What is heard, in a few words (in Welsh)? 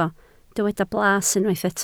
"blas", Welsh